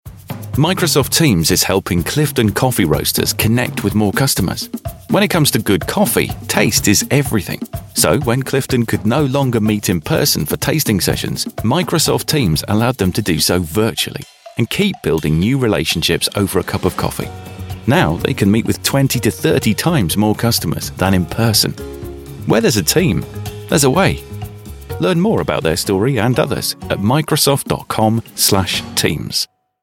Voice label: natural non voiceovery

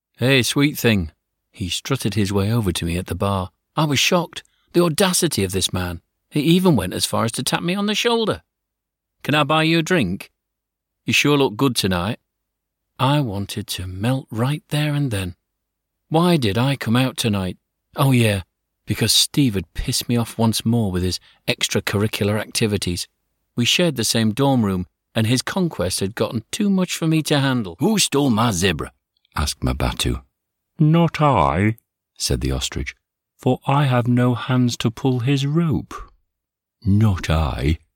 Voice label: audiobook

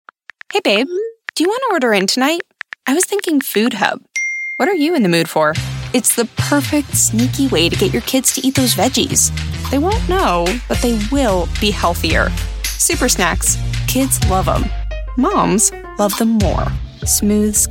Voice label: commercial

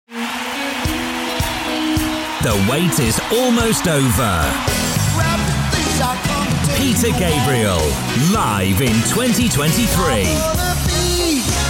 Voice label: upbeat concert tv promo

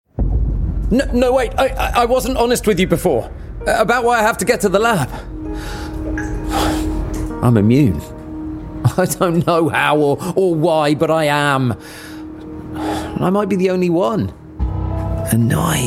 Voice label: video game characters

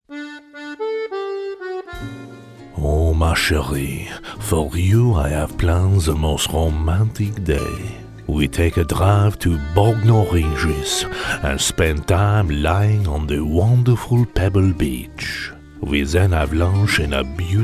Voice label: french parody

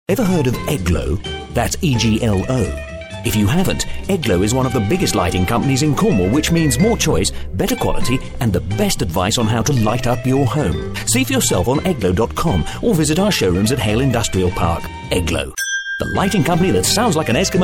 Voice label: quirky

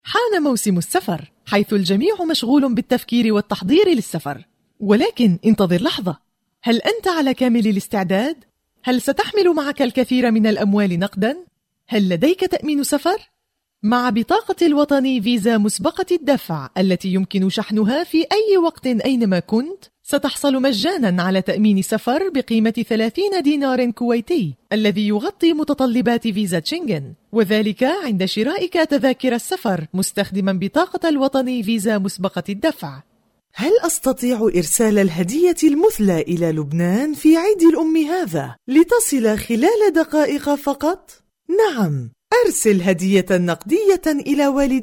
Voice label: arabic female commercial

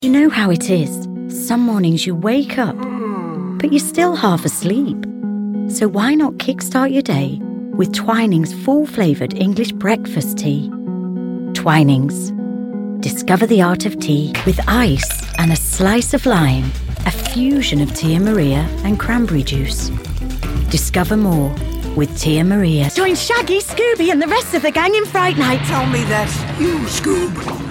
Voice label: commercial

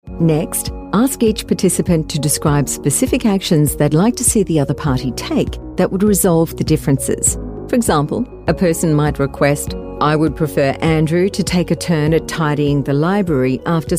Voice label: elearning

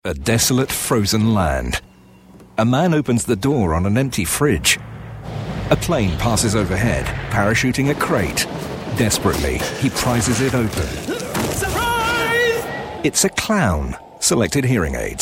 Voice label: audio description

